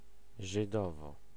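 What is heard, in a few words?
"Żydowo", Polish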